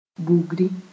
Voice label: "bugri", moore

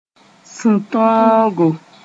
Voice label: "sũ-tõogo", Moore; self-control

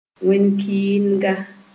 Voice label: "wẽn-kɩɩnga", Moore

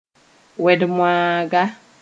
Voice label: "wed-moaaga", moore

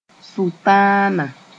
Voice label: "sʋtãana", Moore; Satan

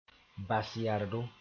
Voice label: "bas-yardo", moore; confidence

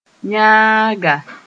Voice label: "yãaga", moore; brat

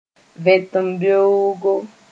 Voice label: "vẽtembeoogo", moore

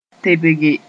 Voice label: "tebge", moore; lift up

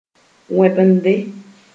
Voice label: "wẽbende", moore

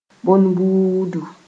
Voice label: "bõn-buudu", moore

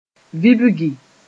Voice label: "vibgi", moore

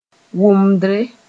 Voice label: "wʋmdre", moore; sound

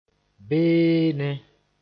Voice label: "beenẽ", moore

